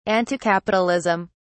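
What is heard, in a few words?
"anticapitalism", English, British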